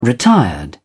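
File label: "retired", British English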